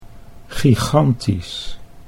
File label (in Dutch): "gigantisch", Dutch